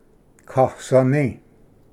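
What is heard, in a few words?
"kasvni", Cherokee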